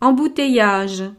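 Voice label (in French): "embouteillage", French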